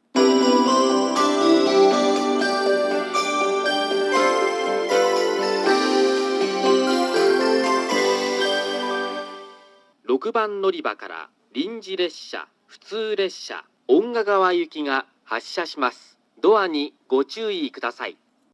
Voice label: kokura local ongagawa; 小倉駅 発車放送（遠賀川行き）